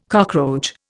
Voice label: "cockroach", Indian